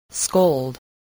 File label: "scold", english spelling